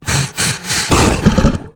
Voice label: Beast Emote Trigger Tracking